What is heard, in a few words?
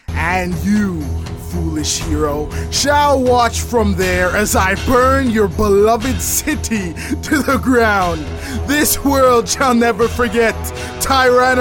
Animation VO